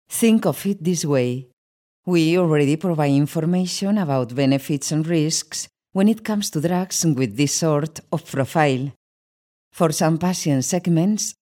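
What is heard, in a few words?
Spanish Spain female English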